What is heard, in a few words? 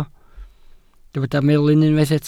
"melyn", Welsh